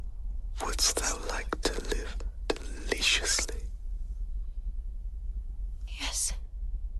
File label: asmr the witch live deliciously black phillip speaks